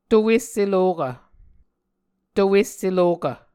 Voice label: "to esse lokv", Muscogee

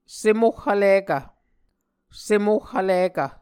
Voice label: "sem oh vlikv", Muscogee

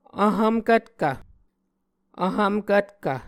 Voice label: "vhvmkvtkv", Muscogee

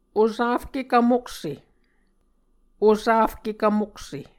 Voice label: "osafke kvmokse", Muscogee

